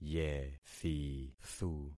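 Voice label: "yethithu", concatenated syllables; Affixing experiment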